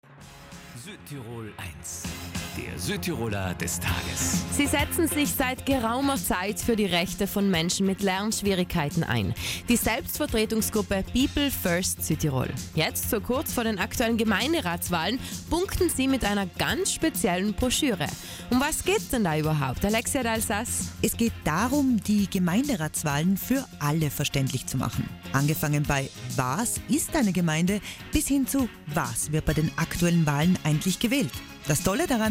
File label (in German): Gemeindratswahl einfache Sprache